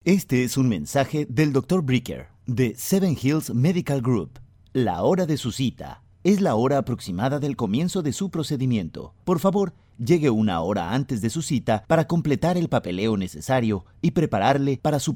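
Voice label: Spanish telephony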